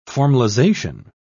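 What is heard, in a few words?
"formalisation", English, British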